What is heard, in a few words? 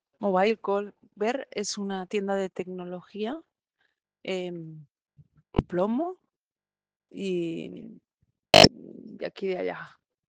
Voice message